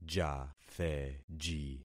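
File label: "jatheji", concatenated syllables; Affixing experiment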